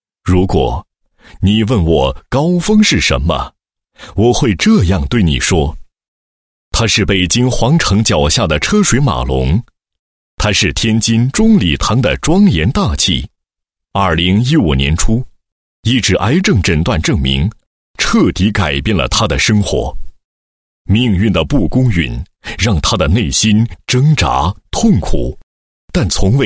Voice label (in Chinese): 男 解说; 对高峰的信仰